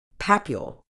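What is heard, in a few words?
"papule", medical English, British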